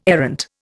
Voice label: "errant", Indian